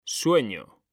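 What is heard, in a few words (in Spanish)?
"sueño", Spanish; mekanta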